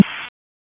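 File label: Police Southwest District Tac, radio; Raleigh; wake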